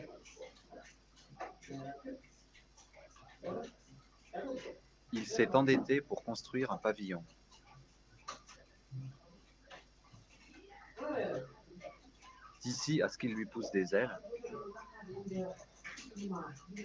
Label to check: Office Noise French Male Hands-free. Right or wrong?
Right